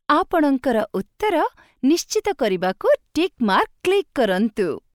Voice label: Click Check VO, Odiya